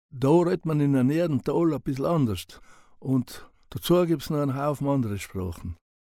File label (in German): Eisacktal